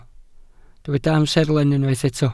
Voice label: "amserlen", Welsh

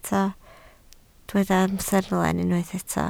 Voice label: "amserlen", Welsh

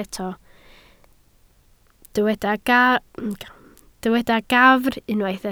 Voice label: "gafr", Welsh

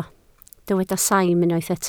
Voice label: "saim", Welsh

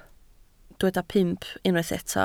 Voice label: "pump", Welsh

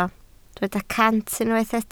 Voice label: "cant", Welsh

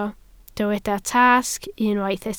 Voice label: "tasg", Welsh

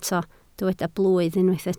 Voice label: "blwydd", Welsh

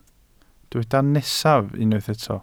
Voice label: "nesaf", Welsh

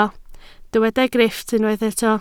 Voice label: "grifft", Welsh

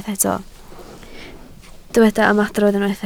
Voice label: "ymadrodd", Welsh

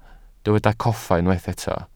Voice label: "coffa", Welsh

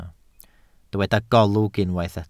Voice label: "golwg", Welsh

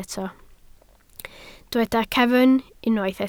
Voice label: "cefn", Welsh

